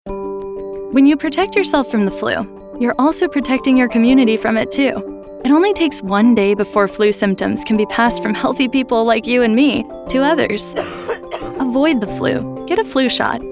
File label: 20s30s Female Explainer